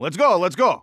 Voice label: radio lets go; Counter Strike; CS:GO; phoenix coop

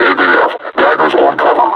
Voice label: announce attack cover, combine suppressor; Half Life Alyx

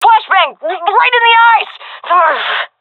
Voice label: blinded, seal fem; vo; Counter Strike Model Pack; CS:GO

